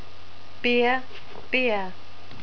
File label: "beer", NZ English